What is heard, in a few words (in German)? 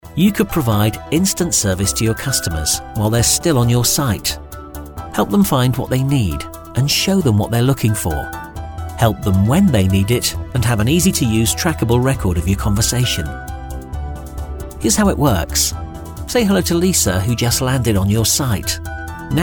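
British English Fremdtake Neutral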